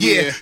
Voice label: "yeah", group of men